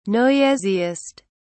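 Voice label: "noisiest", British English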